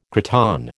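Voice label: "cretonne", American English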